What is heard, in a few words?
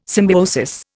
"symbiosis", Indian